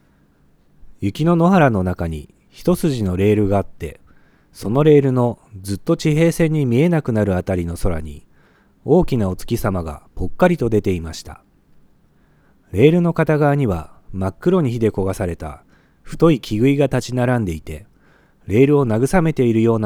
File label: Dialog